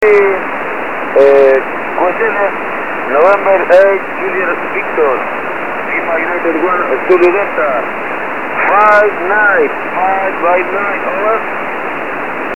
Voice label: 20 ssb